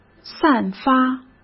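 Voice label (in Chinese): "散发", Mandarin Chinese; chinese-flashcards; hsk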